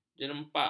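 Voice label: "jerempak", Malay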